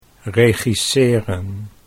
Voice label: "regisseren", Dutch